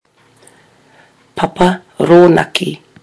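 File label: "papa ronaki", Māori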